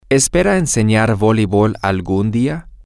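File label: Do you hope to teach volleyball some day, Spanish, male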